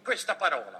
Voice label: Italian; benigni Questa Parola

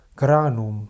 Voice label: "granum" Latin

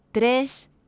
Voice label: "tres", Spanish

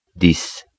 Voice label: "dix" French

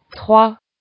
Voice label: "trois" French

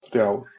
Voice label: "þrjár" Icelandic

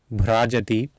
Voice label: "bhraajati", Sanskrit

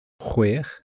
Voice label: "chwech", Welsh

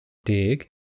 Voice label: "deg" Welsh

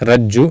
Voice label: "rajju", Sanskrit

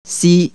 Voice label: Cantonese "silk"

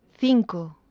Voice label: "cinco", Spanish

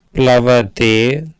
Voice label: "plavate", Sanskrit

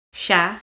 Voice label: "shiaght", Manx

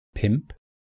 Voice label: "pump", Welsh

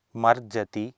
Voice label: "marjati", Sanskrit

